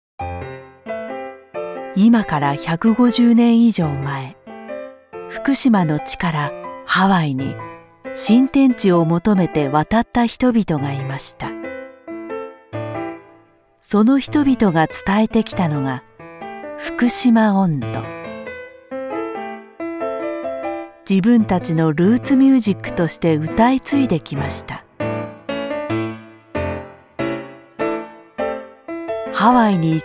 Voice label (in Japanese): ボイスサンプル ドキュメンタリー